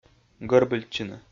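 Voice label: "гӧрбыльтчыны", Komi-Zyrian, male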